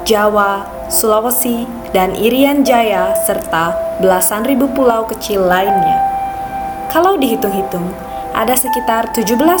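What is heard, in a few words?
Bahasa Indonesia Narrative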